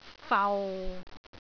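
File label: "fau6", Cantonese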